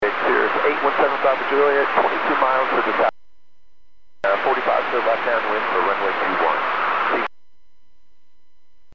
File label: Aviation 122.7; KSTE